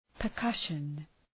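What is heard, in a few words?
"percussion", English; {pər’kʌʃən}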